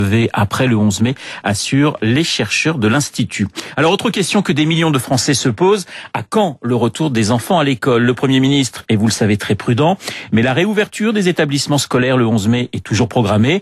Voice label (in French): Reportage Radio Classique